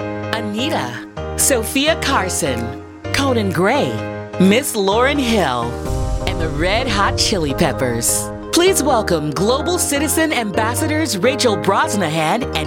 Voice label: live announcer women; show and stadium announcers

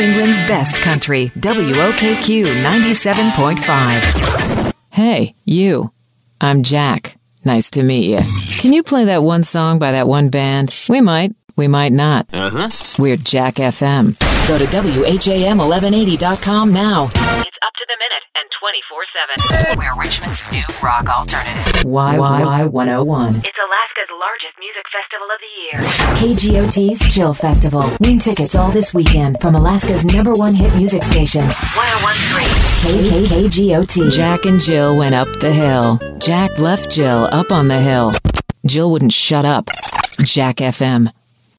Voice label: radio imaging

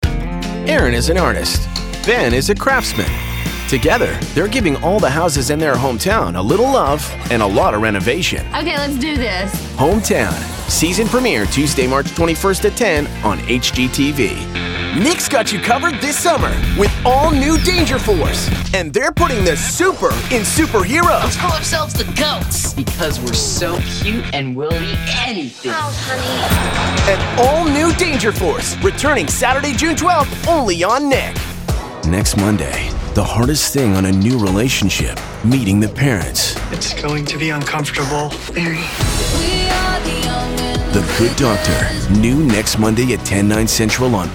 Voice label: promo men network

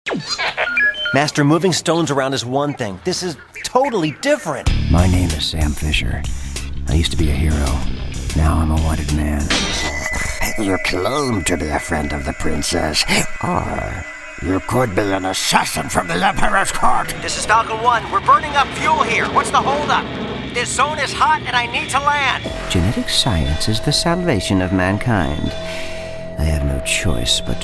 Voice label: animation interactive, men; voices men

